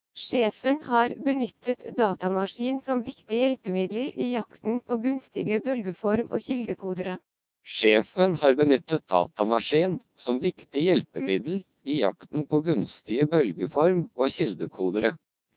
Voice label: Norwegian, CODEC2 1200 bps